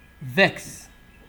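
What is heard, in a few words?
"vex", Nigerian Pidgin, male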